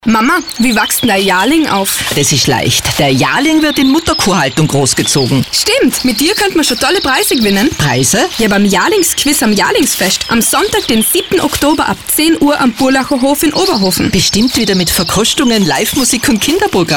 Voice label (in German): Radiospot; Jahrlingsfest 2018; für Agrarmarketing Tirol